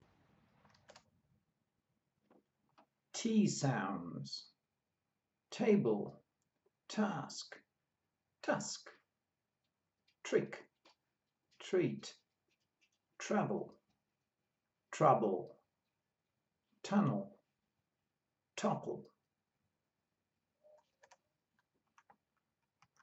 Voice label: T sounds, pronunciation of australian english